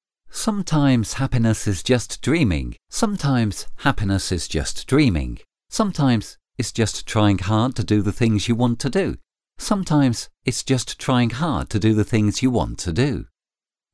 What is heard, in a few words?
english voiceover locutor ingles corporative video corporativo; locutor de Inglaterra. Locución vídeo institucional